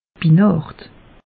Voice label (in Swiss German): "binacht", alsace; meteo